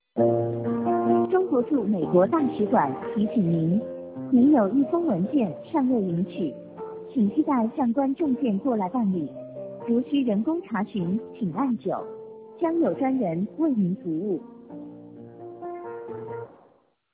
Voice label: voicemail chinese